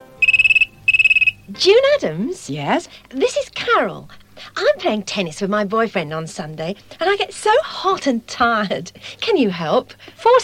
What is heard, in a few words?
Radio Advert; Radio Hallam; 4-7-11